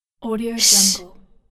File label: young girl says shish short